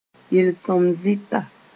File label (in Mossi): "yel-sõm-zɩta", moore